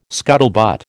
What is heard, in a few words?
"scuttlebutt", American